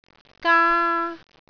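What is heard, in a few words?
"gaa1", Cantonese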